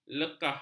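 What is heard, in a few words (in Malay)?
"lekah", Malay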